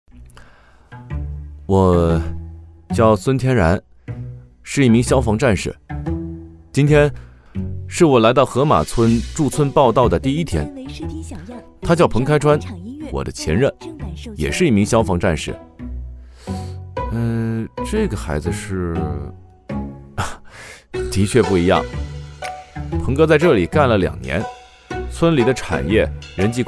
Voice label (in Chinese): 年轻 角色 轻松